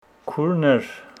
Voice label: Homshetsi sisters